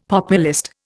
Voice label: "populist", Indian; Dictionary

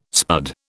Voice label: "spud", British

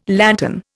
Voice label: "lantern", Indian

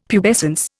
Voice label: "pubescence", Indian; Dictionary

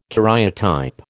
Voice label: "karyotype", American

